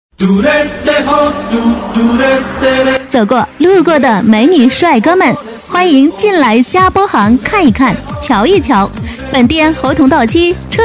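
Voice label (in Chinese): 女促销; 嘉柏行服装店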